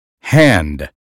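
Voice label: "hand", American phonetics; 英语音标发音（剑桥版）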